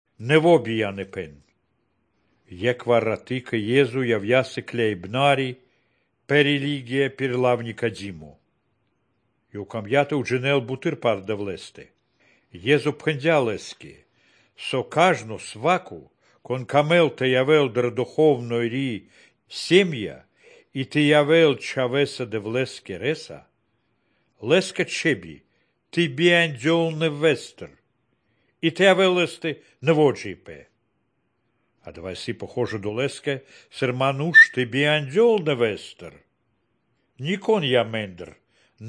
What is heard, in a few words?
good news baltic romani